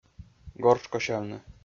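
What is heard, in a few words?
"горш косявны", Komi-Zyrian, male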